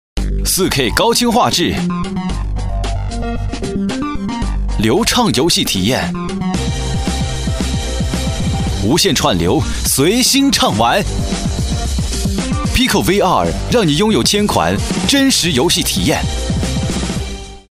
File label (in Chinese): 潮流广告